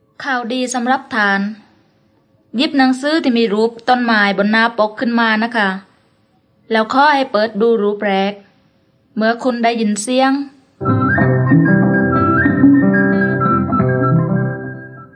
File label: words of life creation to christ thai southern